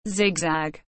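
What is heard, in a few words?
"zigzag", English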